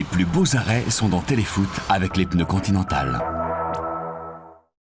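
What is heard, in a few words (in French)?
Continental Télé Foot, Billboard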